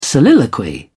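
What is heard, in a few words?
"soliloquy", English, British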